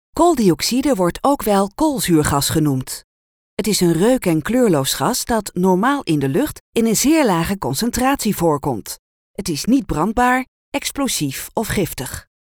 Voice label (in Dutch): Elearning scheikunde